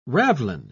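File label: "ravelin", British English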